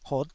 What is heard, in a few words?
"hot5", Taiwanese syllable